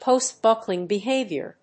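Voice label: "post-buckling behavior", English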